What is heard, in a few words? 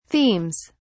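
"themes", British English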